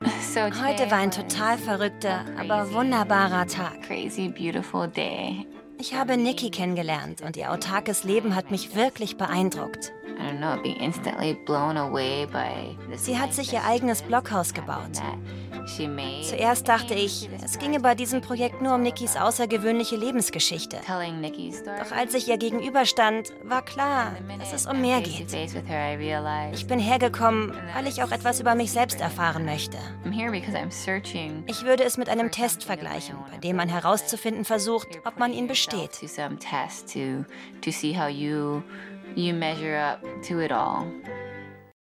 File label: Voice Over; Freigetaucht